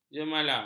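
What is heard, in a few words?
"jemala", Malay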